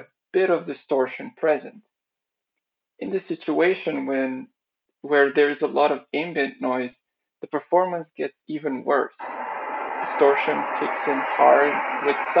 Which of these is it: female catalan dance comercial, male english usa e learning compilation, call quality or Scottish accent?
call quality